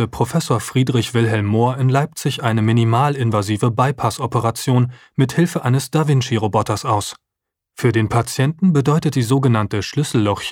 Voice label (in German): robotik